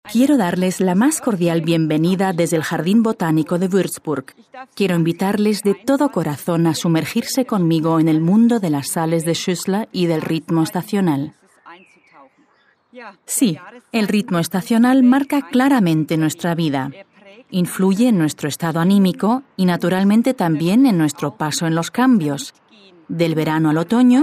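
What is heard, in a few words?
Spanish, Kommentar Freundlich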